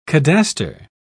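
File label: "cadaster", British English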